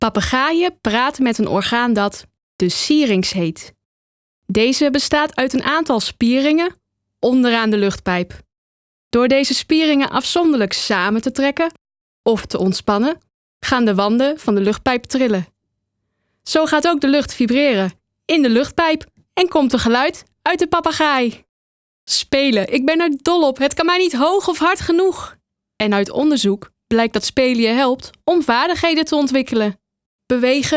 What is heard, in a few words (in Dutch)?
stemdemo video uitleganimatie